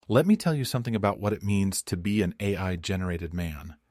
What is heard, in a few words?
voice man